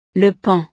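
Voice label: "le paon", French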